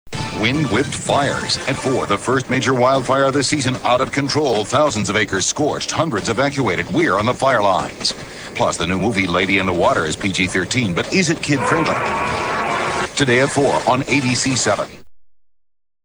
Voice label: promo; kabc7; eyewitness news at4